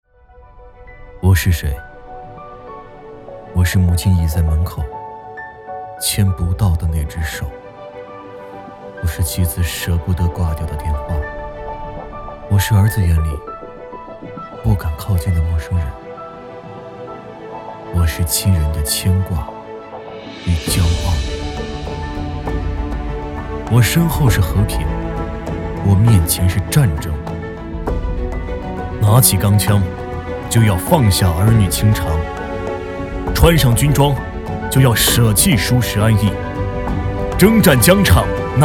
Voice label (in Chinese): 部队宣传片